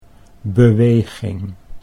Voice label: "beweging", Dutch